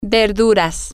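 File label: vegetables, Spanish, female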